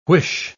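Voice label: "whish", English word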